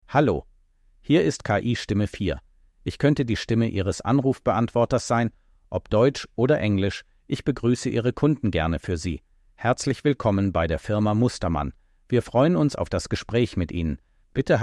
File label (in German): KI-Stimme